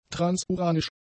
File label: "transuranisch", German; adjectives